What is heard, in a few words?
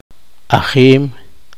poqomchi; atletismo